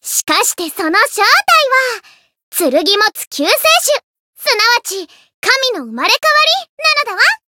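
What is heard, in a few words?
Voice story, Japanese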